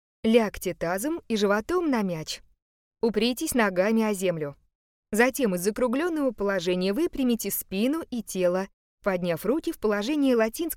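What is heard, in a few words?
Russian, female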